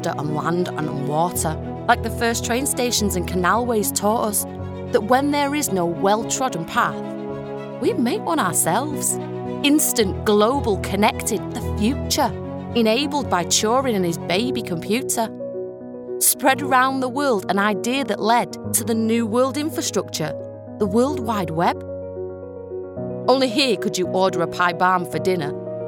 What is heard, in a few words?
Manchester Reel